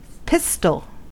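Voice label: "pistol", American English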